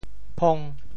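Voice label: "phong5", Teochew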